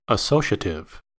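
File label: "associative" English, US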